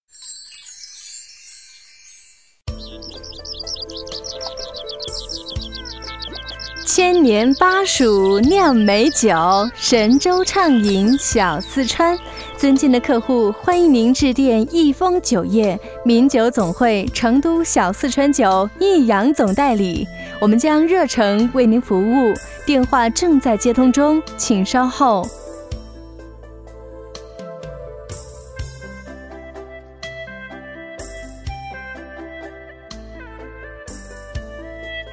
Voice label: 女 酒类（亲和温柔）

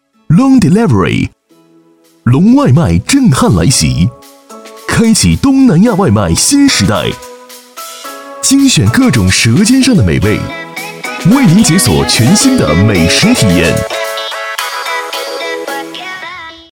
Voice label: 男 外卖; 优质全能; 龙外卖

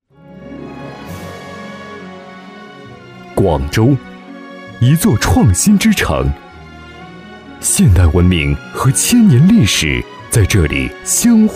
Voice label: 男; 实惠年轻; 电力人才孵化园

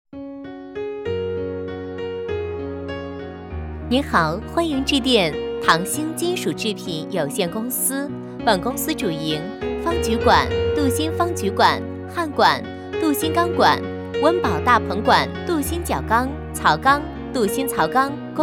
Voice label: 女; 唐兴金属制品有限公司

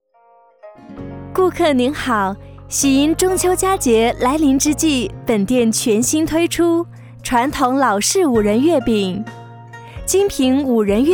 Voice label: 女 月饼（亲和）

